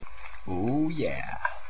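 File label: Oh Yeah, voice clips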